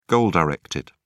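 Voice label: "goal-directed", British English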